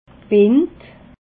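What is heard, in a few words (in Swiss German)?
"bind", Alsatian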